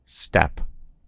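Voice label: "step", American English; gcompris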